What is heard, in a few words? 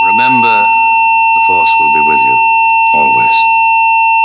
corrupt voice